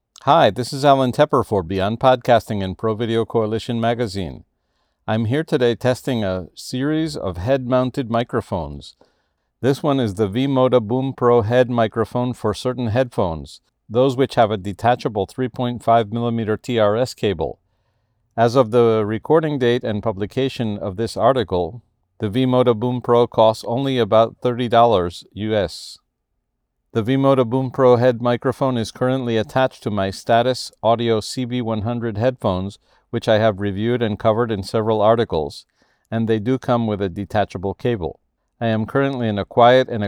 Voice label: V-Moda BoomPro noise reduction